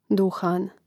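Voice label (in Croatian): "duhan", hrvatski; mreznik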